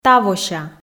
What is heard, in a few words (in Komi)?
"тавося", Komi